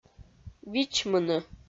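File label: "вичмыны", Komi, female; audio dict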